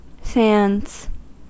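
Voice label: "sands", American English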